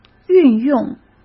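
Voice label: "运用", Mandarin Chinese; hsk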